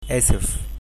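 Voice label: "sorry", Arabic, Egyptian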